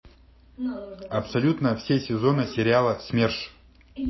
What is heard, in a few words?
neutral; wav2vec2 xls r 300m emotion ru